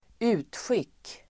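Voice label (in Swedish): "utskick", Swedish; Uttal: [²'u:tsjik:]; utskick substantiv, circular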